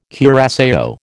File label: "curaçao", American